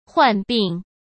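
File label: "患病", Mandarin Chinese; hsk; chinese-flashcards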